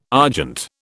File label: "argent", British